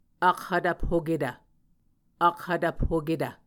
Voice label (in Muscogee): "akhvtvphoketv", Muscogee; Mvskoke Words with Voicing Consonants; A Dictionary of Creek/Muskogee; SIL International; Onvkv Ohhvyayices